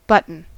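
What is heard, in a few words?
"button", American English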